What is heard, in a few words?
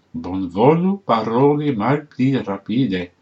slowly, esperanto